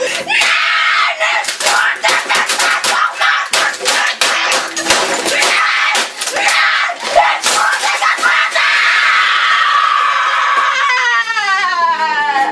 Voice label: angry germen kid